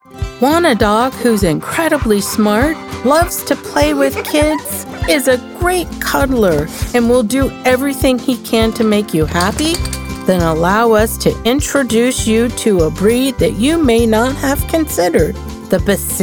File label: Narration